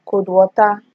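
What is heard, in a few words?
"cold water", Nigerian Pidgin, female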